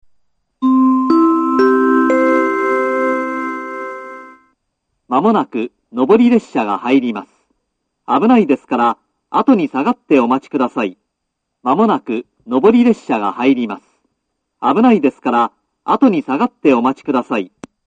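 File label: platform 3 approaching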